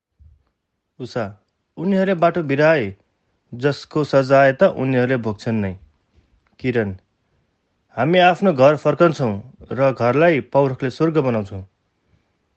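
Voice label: Nepali, General; GoLocalise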